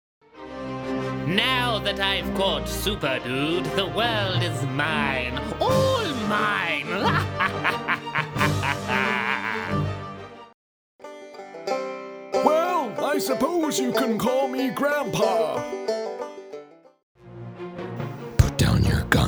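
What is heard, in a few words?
Character, narrator UK male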